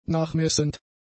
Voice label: "nachmessend", German; adjectives

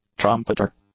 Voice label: "trumpeter", English; nouns

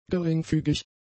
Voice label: "geringfügig", German; adjectives

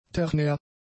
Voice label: "ternär", German; adjectives

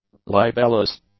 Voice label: "libellous", english; adjectives

